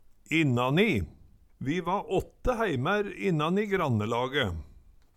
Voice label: innani, Numedalsmål; Vi va åtte heimær innani grannelage

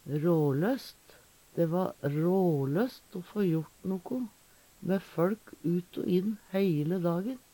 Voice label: ralost, Numedalsmål; råløst; Eksempel på bruk Dæ va råløst o få jort nokko mæ føLk ut o inn heile dagen.

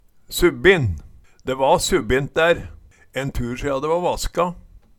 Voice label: subbin, Numedalsmål

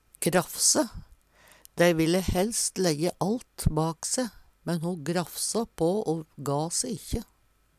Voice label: graffse, Numedalsmål

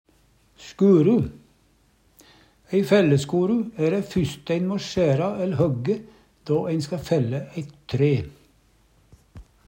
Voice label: skøru, Numedalsmål

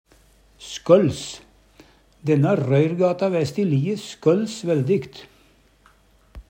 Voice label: "skols", Numedalsmål; Verb

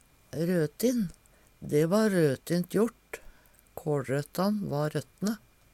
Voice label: røtin, Numedalsmål; Adjektiv

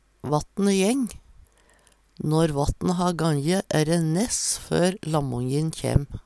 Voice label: "vattne jeng", Numedalsmål

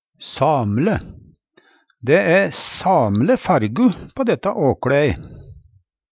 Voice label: sam'le, Numedalsmål; Adjektiv

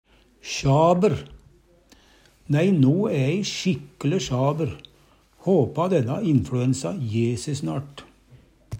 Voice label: "sjaber", Numedalsmål

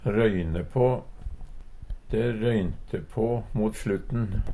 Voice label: røyne på, Numedalsmål; Adverb; slitsamt, ta på

